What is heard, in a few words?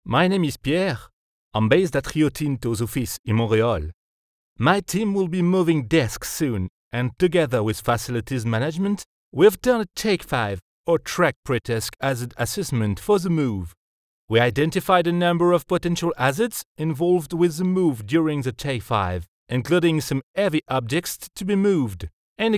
Medium warm explanatory voice, Canadian French; RioTinto